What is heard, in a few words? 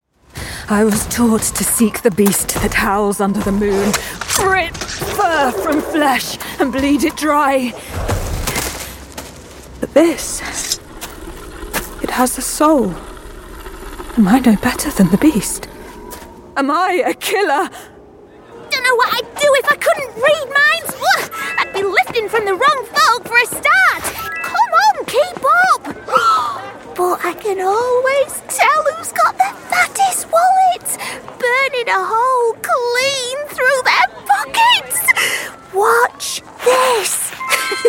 gaming, voiceover